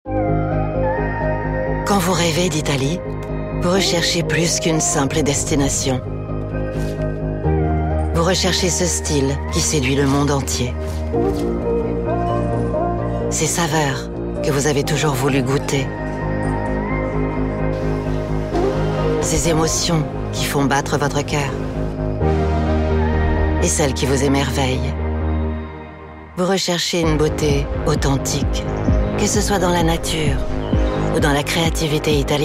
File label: FR, Commercial; GoLocalise